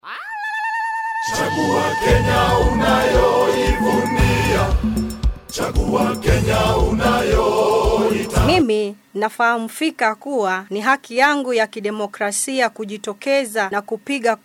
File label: spot; Radio Domus; umoja radio for peace